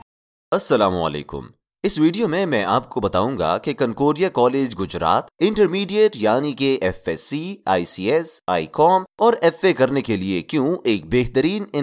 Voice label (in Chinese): 乌尔都语男 外语 小语种